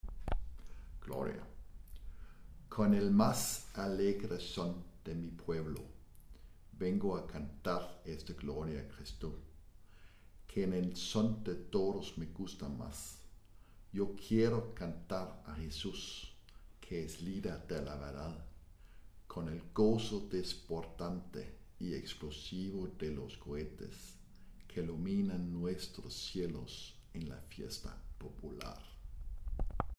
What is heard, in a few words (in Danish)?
Tekstsoplæsning; Gloria; øvestemmer